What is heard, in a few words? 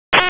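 Wake Forest Police Dispatch, p25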